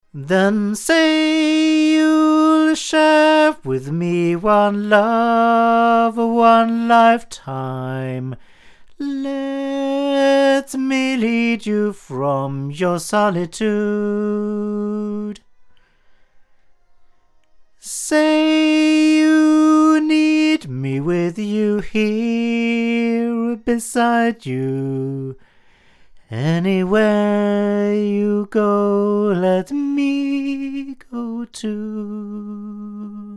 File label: male; Milab DC-96C; microphones